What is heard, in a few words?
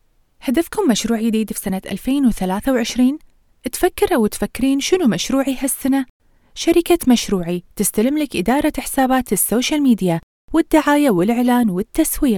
kuwaiti, Kuwaiti Voiceover